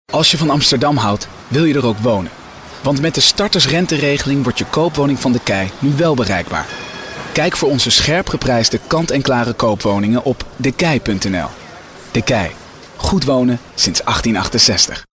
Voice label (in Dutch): Warm, De Stemmen